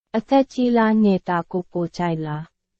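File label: Burmese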